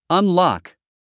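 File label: "unlock", English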